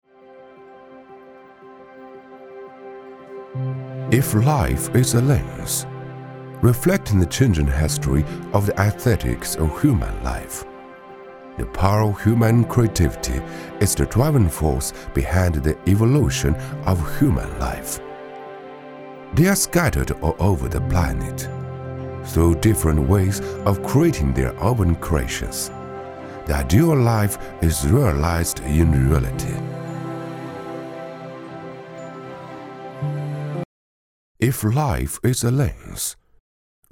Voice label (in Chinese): 儒雅绅士